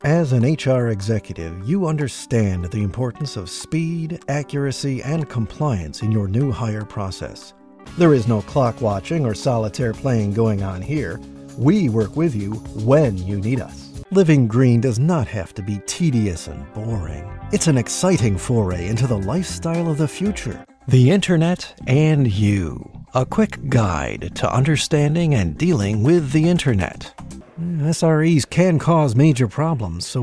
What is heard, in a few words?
Corporate